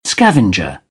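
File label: "scavenger", British English; AmericanBritish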